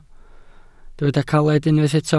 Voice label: "caled", Welsh

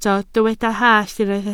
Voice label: "hallt", Welsh

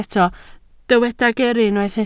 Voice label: "gyrru", Welsh

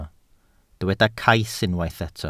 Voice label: "cais", welsh

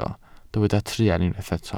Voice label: "truan", Welsh

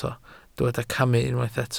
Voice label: "camu", welsh

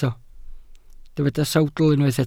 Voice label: "sawdl", Welsh

